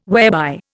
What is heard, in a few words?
"whereby", Indian